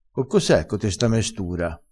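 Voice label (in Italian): "mestura", dialettale crespinese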